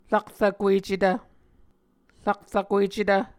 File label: "rakrakuecetv", Muscogee